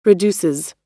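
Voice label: "reduces", US English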